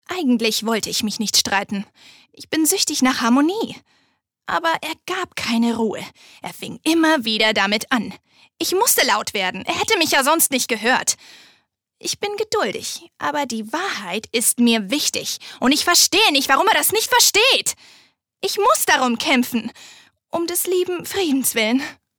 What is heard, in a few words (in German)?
Schauspiel Energisch